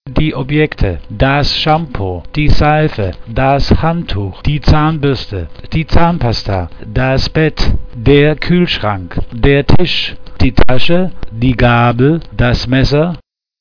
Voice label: german